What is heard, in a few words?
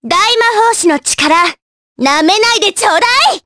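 voice, Japanese; Cleo; kingsraid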